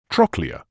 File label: "trochlear", medical English